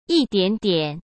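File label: "一点点", Mandarin Chinese; hsk; chinese-flashcards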